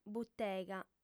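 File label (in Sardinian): "butega", female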